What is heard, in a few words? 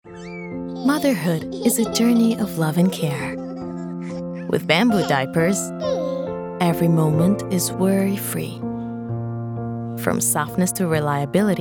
English Commercial